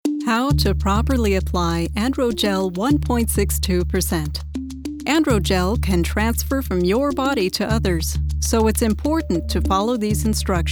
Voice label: corporate, voice over; androgel